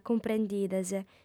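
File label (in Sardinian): "cumprendides", female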